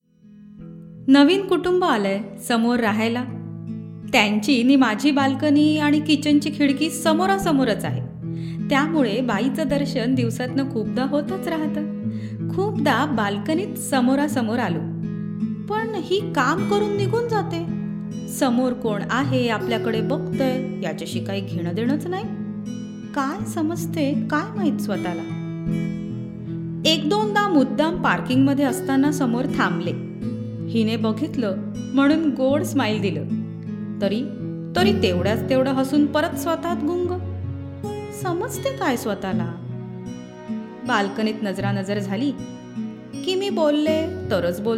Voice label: marathi